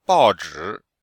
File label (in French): "bao4zhi3", chinois; Cours de Chinois